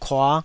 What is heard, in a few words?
"kua5", taiwanese syllables